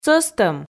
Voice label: "сӧстӧм", Komi

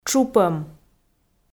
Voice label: "тшупӧм", Komi

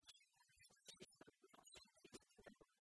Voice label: сямлуныс кыйсьысьлӧн ноп сертиыс тыдалӧ, kv_proverbs

